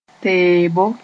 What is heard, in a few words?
"teebo", moore